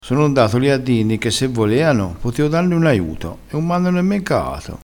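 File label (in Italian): "caato", dialettale crespinese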